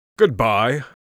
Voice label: goodbye, Mage Voice; Mage Voice Pack